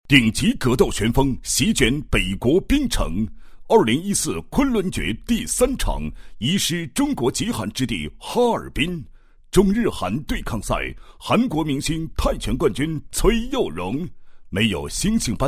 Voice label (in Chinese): 男 栏目包装 格斗比赛宣传, 大气抒情; 国语男声配音